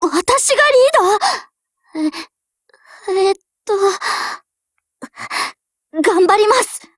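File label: OPERATION BEGIN, Japanese, Voice; LWMMGMod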